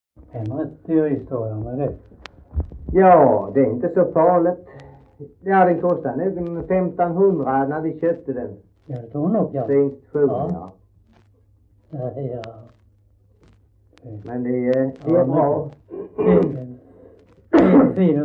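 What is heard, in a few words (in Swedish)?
Hallaröd Skåne, dialekter; Folkminnen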